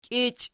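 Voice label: "kiichi" nominative, Archi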